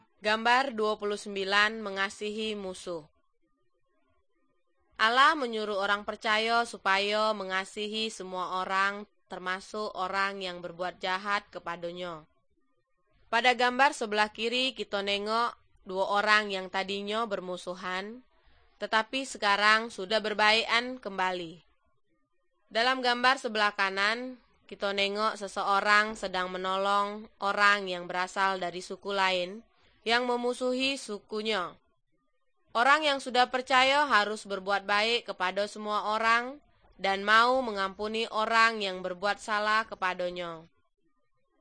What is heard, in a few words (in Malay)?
good news malay jambi